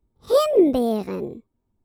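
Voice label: "raspberries", German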